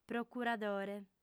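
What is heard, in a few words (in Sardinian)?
"procuradore", female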